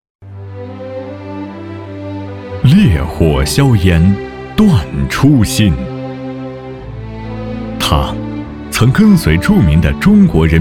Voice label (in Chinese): 男,红色讲述